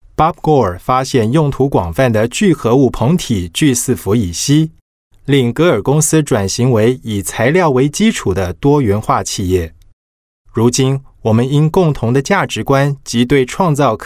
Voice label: Mandarin Taiwan Corporate voice-over, Mandarin Taiwan Male